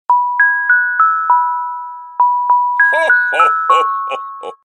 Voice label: Santa ho ho ho Tune